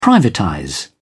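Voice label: "privatize", British English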